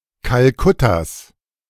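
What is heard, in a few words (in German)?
"Kalkuttas", German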